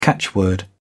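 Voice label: "catchword", British English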